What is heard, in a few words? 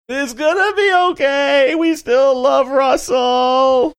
saying its gonna be okay funny